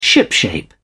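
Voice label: "shipshape", British English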